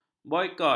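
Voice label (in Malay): "boikot", Malay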